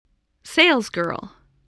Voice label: "salesgirl", English spelling